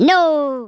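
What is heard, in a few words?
"nooo" spoken, Redneck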